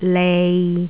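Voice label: "lei6", Jyutping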